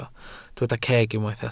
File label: "ceg", Welsh